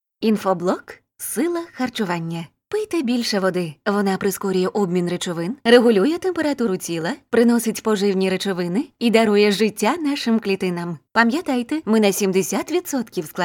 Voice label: Ukrainian Native